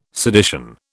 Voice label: "sedition", British